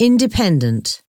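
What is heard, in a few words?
"independent", English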